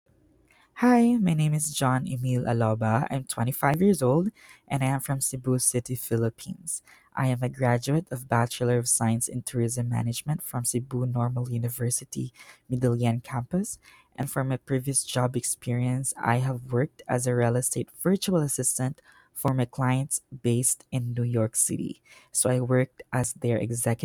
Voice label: Voice Recording, Self Introduction; Brivity Virtual Assistants; Real Estate Executive Assistant for HGT NYC Real Estate